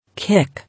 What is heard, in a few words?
"Kick", English